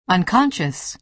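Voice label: "unconscious", American English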